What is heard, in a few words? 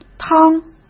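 "汤", Mandarin Chinese; hsk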